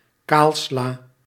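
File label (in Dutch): "kaalsla", Dutch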